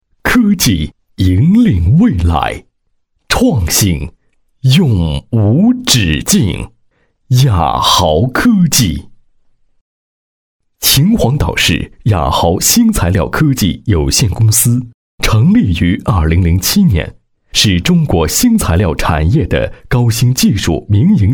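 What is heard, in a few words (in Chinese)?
国语 男声 宣传片 成熟大气 大气浑厚磁性 沉稳; 雅豪科技-科技公司简介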